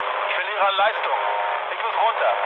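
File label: German damaged engine mood low, speech; War Thunder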